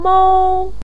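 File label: "mou", Mandarin pinyin, tone 1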